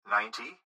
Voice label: Gps Voice, Male; Ninety; Speaker Adult Male; Recorded Voice; Blastwave

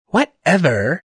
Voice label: "Whatever", Male; Attitude Sound Effects

